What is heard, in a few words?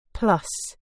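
"plus", British English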